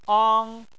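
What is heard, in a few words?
"ang" Chinese, first tone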